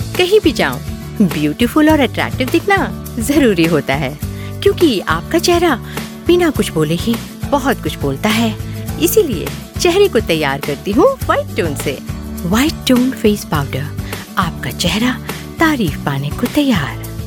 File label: commercial hindi